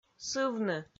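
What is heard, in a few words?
"сывны", Komi, female; audio dict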